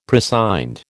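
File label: "prescind", American English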